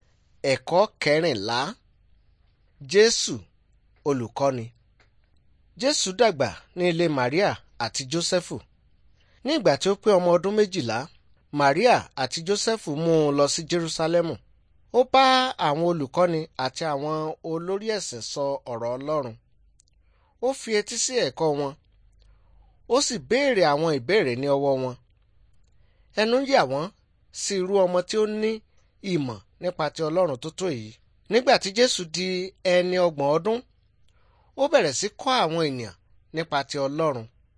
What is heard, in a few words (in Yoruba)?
good news yoruba